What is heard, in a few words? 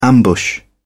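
"ambush", English, British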